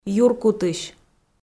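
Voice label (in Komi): "юркутысь" Komi, female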